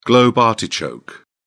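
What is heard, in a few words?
"globe artichoke", British English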